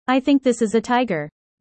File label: user fast